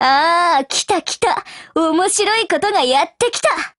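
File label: Japanese, Voice; GF Alarm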